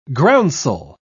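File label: "groundsel", British English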